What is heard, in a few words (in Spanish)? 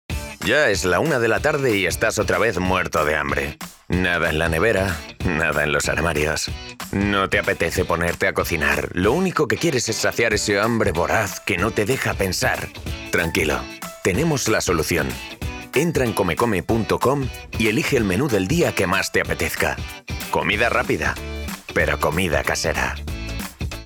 EU Male Spanish Voice Commercial Comida Rapida